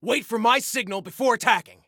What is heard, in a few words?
Attack Wait, Soldier Male; Soldier Male 01